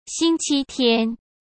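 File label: "星期天", Mandarin Chinese; chinese flashcards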